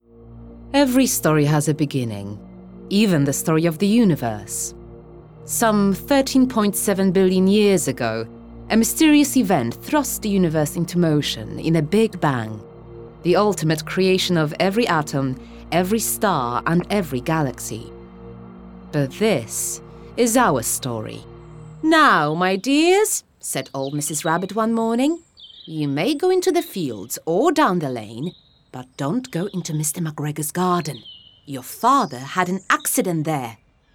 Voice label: Polish, English, Eastern European, Female, 20s-40s